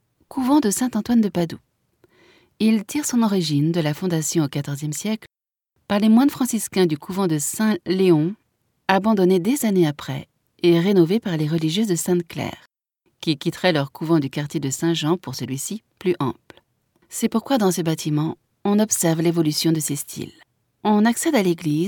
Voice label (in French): audioguia, frances; audioguia baeza